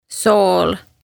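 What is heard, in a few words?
"Sool", Estonian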